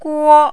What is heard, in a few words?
"guo1", Mandarin